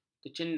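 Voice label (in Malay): "kecindan", Malay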